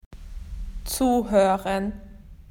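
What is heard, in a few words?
"to listen", Australian English; PictureDictionary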